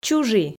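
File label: "чужи", Komi, female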